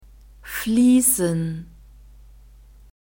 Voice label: "fliessen", German; verbs present tense irregular

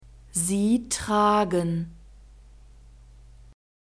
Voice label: "sie tragen", German; verbs present tense irregular

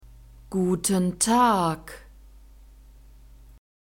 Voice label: "guten tag", German

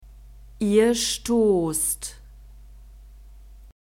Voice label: "ihr stosst", German; verbs present tense irregular

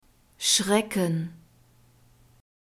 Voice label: "schrecken", German; verbs present tense irregular

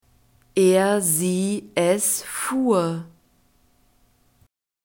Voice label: "er sie es fuhr", German; verbs past tense irregular

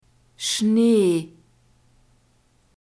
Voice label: "Schnee", German; masculine

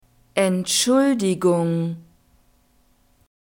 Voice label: "entschuldigung", German

